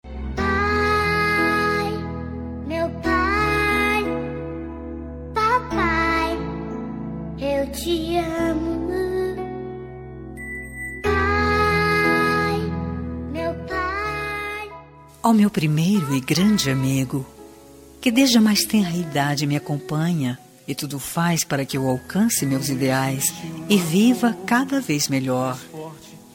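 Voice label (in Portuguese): dia dos pais female distante, voz feminina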